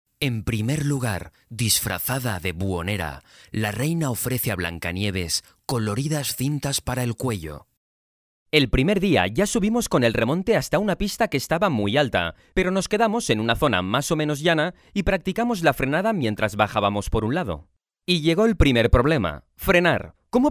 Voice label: spanish audiobooks